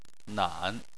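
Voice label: "nan", Chinese, third tone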